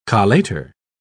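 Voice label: "collator", British English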